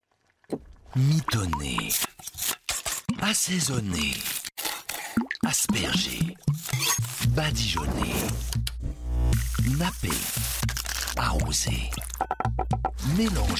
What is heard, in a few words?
spot TV; Kikkoman